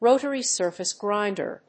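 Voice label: "rotary surface grinder", English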